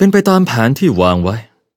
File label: level up, th; cookierun